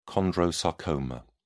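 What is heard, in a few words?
"chondrosarcoma", British English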